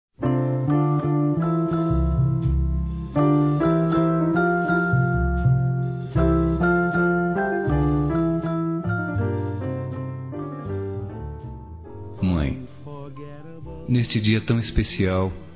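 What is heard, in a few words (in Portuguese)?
MÃE, Masculino; Dia das Mães; Telemensagens 2017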